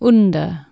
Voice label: "under", Anglo Saxon